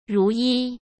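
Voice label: "如一", Mandarin Chinese; hsk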